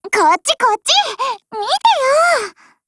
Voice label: Voice, JP; GF Alarm